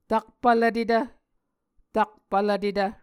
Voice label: "takpvlvtetv", Muscogee